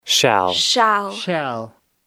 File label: shall (AMERICAN)